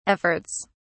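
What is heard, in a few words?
"efforts", British English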